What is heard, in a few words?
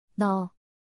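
"너", Korean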